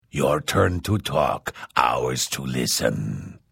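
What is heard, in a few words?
Hello, Vo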